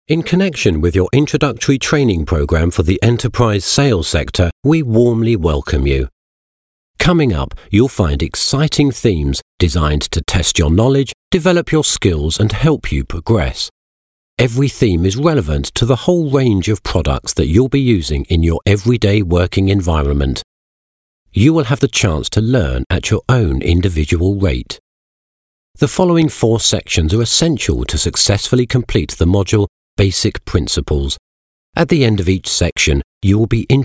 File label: en-uk e-learning